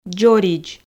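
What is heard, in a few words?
"дзоридз", Komi, female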